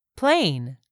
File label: "plane", English; 3000 words